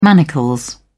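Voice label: "manacles", English, uk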